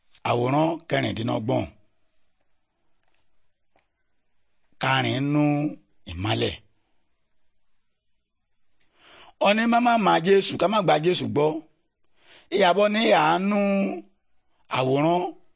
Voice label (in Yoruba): good news yoruba, bible